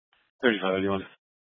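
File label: Police Dispatch, Scanner audio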